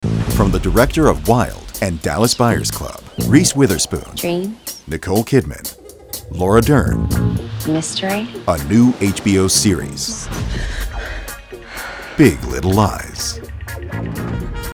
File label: promo; BIG LITTLE LIES